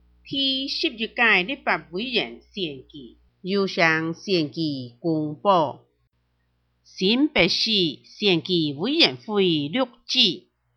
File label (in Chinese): 客語; 第11屆區域立法委員選舉; 新北市; 第09選舉區（永和區、中和區泰安里等17里）; 有聲選舉公報